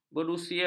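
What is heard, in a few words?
"berusia", Malay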